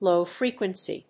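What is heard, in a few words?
"low frequency", English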